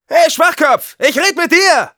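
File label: taunts, German; Scout; Scout-Verspottungen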